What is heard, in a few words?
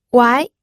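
"wai2", Mandarin Chinese, pinyin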